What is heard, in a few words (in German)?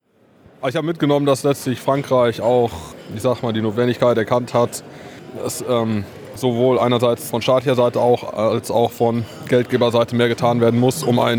junge maenner stimmen